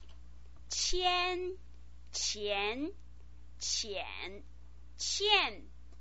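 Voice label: "qian", Mandarin Chinese pinyin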